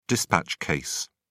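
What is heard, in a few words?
"dispatch case", English, British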